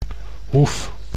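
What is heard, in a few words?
"hoef", Dutch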